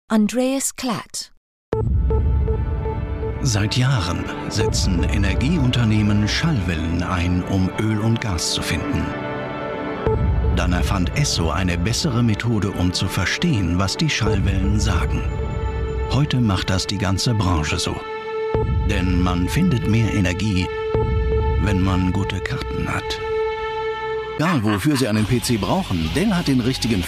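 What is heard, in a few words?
german commercial corporate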